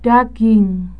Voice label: "daging", Indonesian